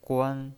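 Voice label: "guan", Mandarin Chinese, first tone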